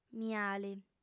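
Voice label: "miali", female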